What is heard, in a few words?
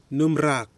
"nɨmrakw", Nafe; ashes